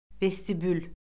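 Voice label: "vestibül", Azerbaijani; Orfoqrafiya Lüğəti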